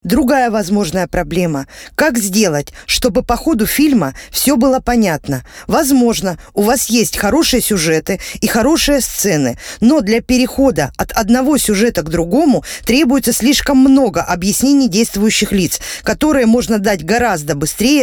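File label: Announcer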